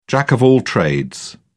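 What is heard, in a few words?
"jack of all trades", British English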